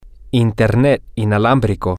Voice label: wireless internet, spanish, M